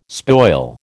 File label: "spoil", American